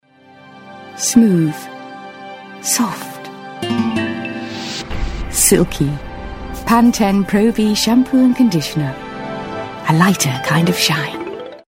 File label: Commercial; Pantene